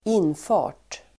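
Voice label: "infart", Swedish; Uttal: [²'in:fa:r t]; infart substantiv, approach, entrance; inkörsväg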